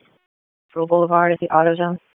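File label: Raleigh Police Northeast District Dispatch; wake p25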